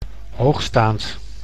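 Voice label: "hoogstaand", Dutch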